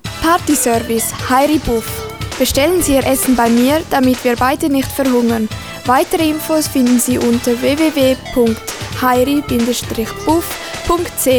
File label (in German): Werbung